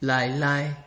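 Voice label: "lie lie", Nigerian Pidgin, male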